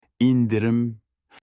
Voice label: discount in turkish

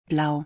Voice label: Blue in German

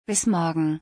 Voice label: See you tomorrow in German

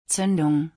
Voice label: Ignition in German

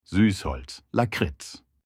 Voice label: licorice in german

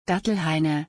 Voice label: Date palm grove in German; خرما به آلمانی

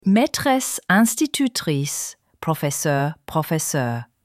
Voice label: teacher in french

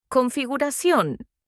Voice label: settings in spanish

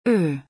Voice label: The letter O in German

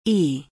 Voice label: The letter I in German

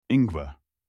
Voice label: ginger in german

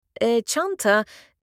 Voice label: bag in turkish

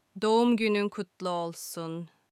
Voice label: happy birthday in turkish

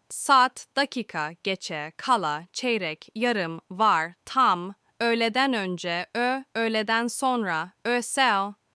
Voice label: clock in turkish

